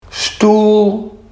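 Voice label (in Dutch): "stoel", Barghs dialect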